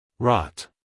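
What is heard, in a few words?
"rot", American English, male; everyone-can-use-english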